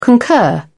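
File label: "concur", British English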